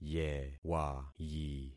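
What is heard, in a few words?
"yewayi", concatenated syllables; Affixing experiment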